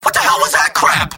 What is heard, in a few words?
scout jeers; mvm